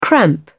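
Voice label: "cramp", British English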